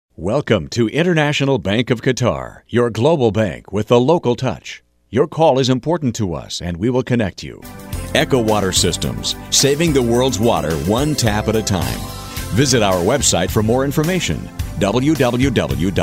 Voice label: on hold; usa